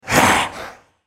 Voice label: effort, en US; Thresh

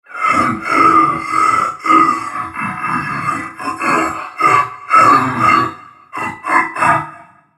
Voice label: joke, en US; Vel'Koz

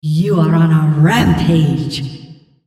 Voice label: female On Killing Spree

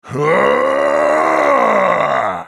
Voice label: spellcast effort, en US; Trundle

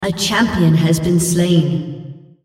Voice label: female On Champion Kill Hero

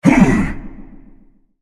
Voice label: effort; Xerath; Character Voices - English

